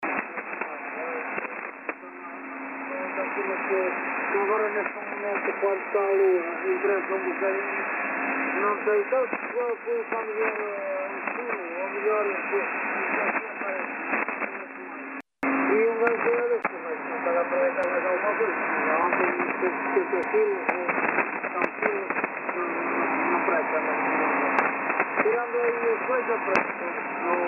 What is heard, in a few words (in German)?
Portugiesische Fischer USB 2.5khz